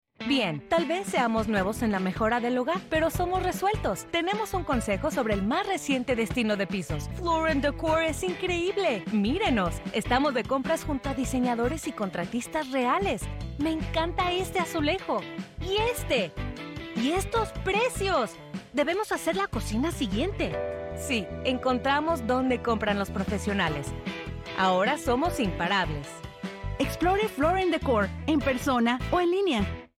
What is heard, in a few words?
Spanish; Commercial Demo; Voiceover